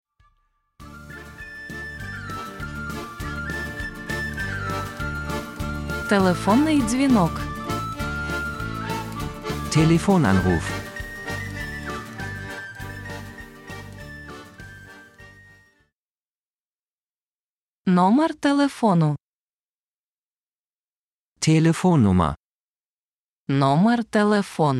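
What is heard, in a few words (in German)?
Telefonanruf, Finnisch lernen; NeoAudio; Ukrainisch lernen - Sprachkurse für Anfänger (2023); Hörbuch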